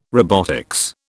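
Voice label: "robotics", British English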